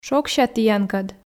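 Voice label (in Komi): "шогся тіянкӧд", Komi, female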